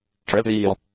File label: "trivial", English; adjectives